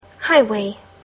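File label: "highway", English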